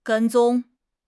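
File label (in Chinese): "跟踪", Chinese; HSK6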